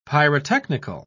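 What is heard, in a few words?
"pyrotechnical", British English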